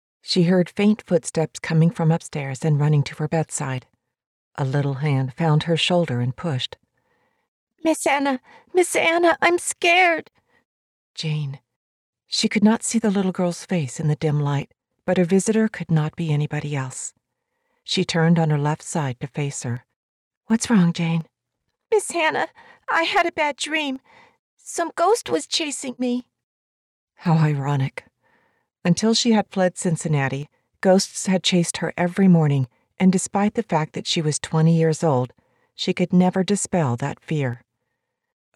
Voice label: Historical Romance F and child; Annas Dilemma